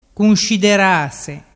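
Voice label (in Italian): "cunsciderase", Vernazzese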